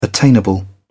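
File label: "attainable", English, British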